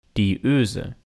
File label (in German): "die Ose", German